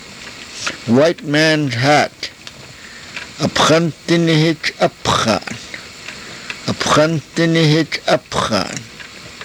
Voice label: "apxantinihichapxaan", karuk